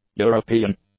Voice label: "European", english; adjectives

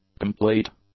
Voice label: "complete", English; adjectives

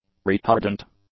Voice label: "retardant", English; adjectives

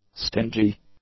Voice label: "stingy", English; adjectives

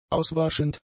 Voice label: "auswaschend", German; adjectives

